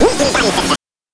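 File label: "Sister", voice; NES DPCM; MIDINES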